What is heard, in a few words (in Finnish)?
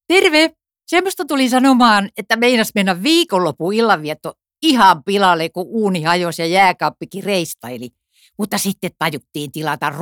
radio